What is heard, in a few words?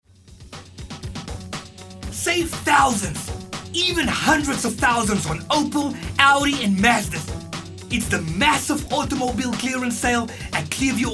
Hard Sell